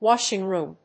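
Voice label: "washing room", English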